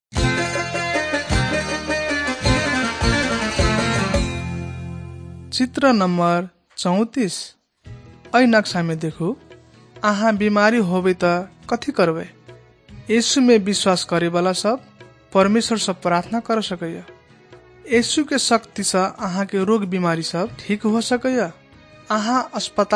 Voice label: maithili thetiya; wycliffe bible translators inc nt drama; banhiyaa khabar good news